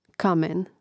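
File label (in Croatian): "kamen", Hrvatski; mreznik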